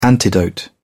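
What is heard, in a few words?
"antidote", British English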